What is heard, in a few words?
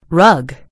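"rug", American English; gcompris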